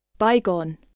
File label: "bygone", spelling